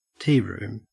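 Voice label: "tearoom", Australian English; pronunciations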